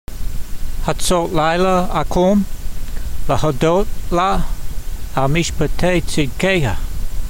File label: voice, hebrew language; Psalm 119:62; hatsot-lailah a'qum le'hodot lah, al meeshpetay tseedkeyha